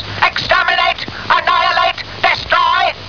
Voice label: dalek rant